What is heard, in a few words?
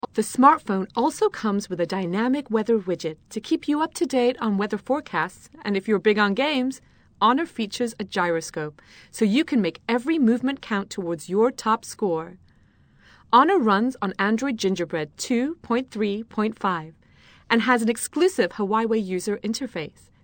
ENGLISH FEMALE